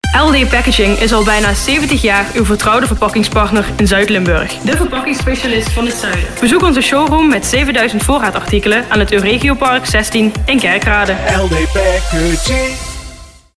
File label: Reclame Radio; Parkstad Radio